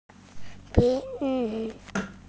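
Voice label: "bi2 zi5", Mandarin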